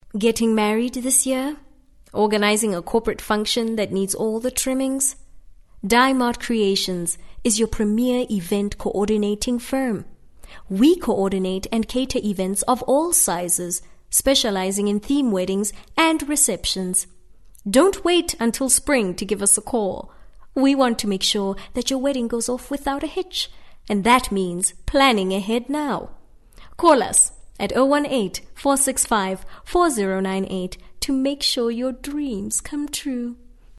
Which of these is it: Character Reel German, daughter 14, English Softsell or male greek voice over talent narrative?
English Softsell